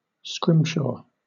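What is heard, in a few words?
"scrimshaw", British English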